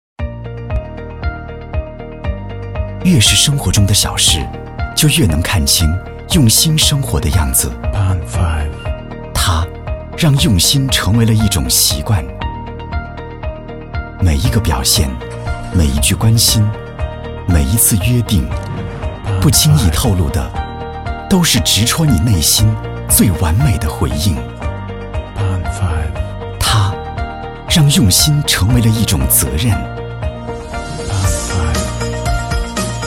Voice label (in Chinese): 男 宣传片 年轻阳光朝气 科技服务企业宣传片; 大众汽车